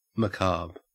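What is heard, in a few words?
"macabre", Australian English; pronunciations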